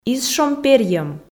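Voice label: "из шом перйӧм", Komi, female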